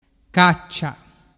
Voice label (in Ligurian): "caccia", dialettu